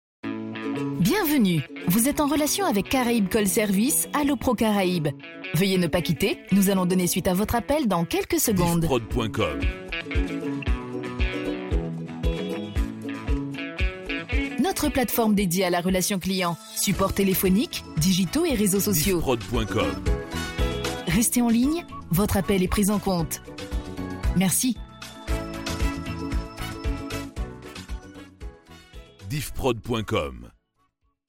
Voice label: Audiotel Attente Simple, telephonie svi; Caraibes Call Service

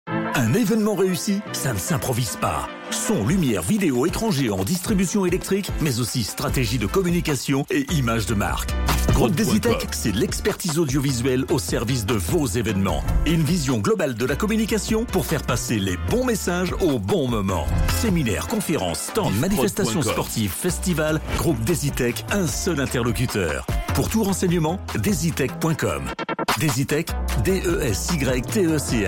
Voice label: Spot Evenementiel; Radio Kafeine; Desytech

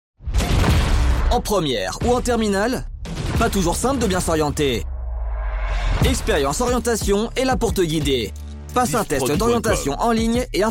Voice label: Ecole, publicite; YSchools Troyes

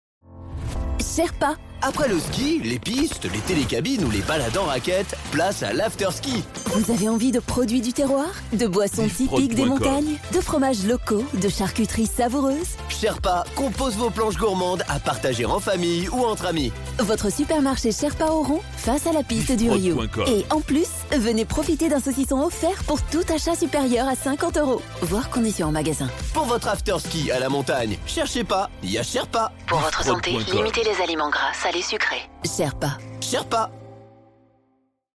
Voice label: Radio Spot Supermarche; Radio Cimes D'Azur; Sherpa Auron

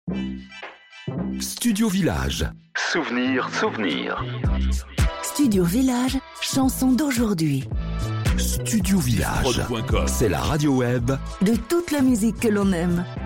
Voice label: Jingles Souvenirs; Studio Village

